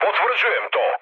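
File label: Serbian player killed enemy mood med, speech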